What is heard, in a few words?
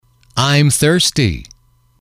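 im thirsty, English, male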